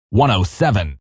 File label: Numbers DJ 107, Male voice, DJ, radio numbers, 107, speaking, (human voice); Talking; Voice Box